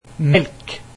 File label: milk, norwegian